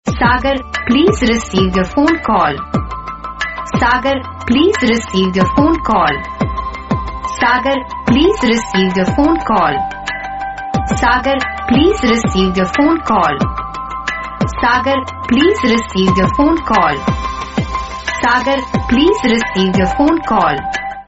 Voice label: Please Receive The Phone Call Hindi Ringtone